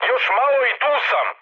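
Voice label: Serbian near waypoint mood high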